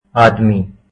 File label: hindi men